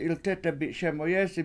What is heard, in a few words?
She Is Not Very Fat, Washo; 7iltEtebi7 SEmuyEEsi